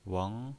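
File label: "weng", pinyin voice, second tone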